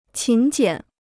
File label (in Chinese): "勤俭", Chinese